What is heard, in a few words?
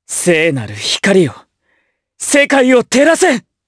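Skill, Japanese; Lucias; kingsraid; jp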